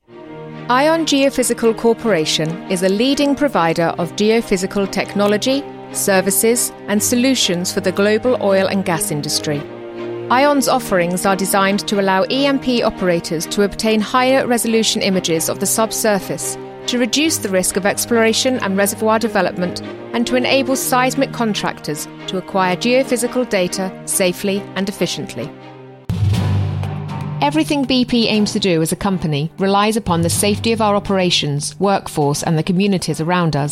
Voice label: Corporate Imaging